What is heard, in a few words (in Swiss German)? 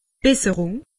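"besserung", Alsatian; medecine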